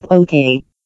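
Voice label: "okay", English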